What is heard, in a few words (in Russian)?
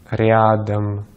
"рядом", Russian